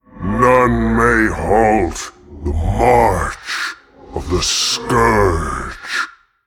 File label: Male Demon; Mal'Ganis